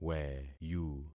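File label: "weyu", concatenated syllables; Affixing experiment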